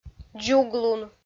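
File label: "дзуглун", Komi, female; kpv; audio dict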